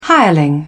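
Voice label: "hireling", British English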